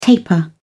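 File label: "taper off", British English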